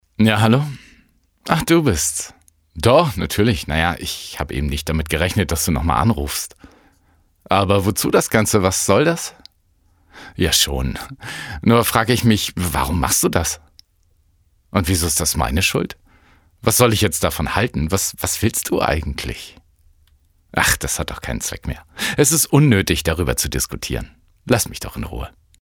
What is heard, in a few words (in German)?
Spiel Dialog